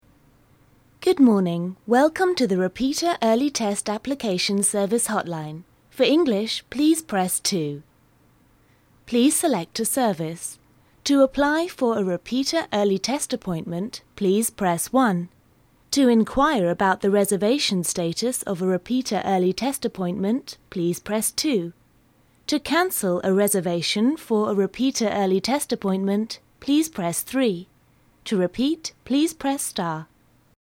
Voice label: phone system